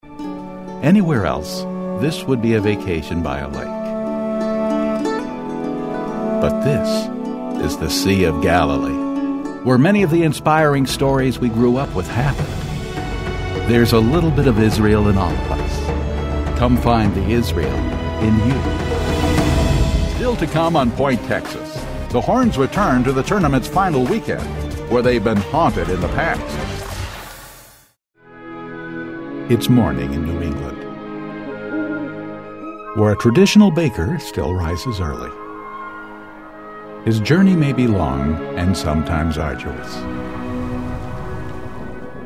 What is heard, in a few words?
Commercials